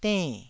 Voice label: "denn4", Taiwanese